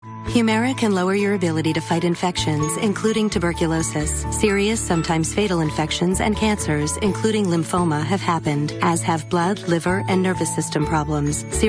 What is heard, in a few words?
commercial, commercial women